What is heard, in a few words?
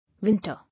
"Winter", English